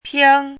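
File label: "pīng", Mandarin Chinese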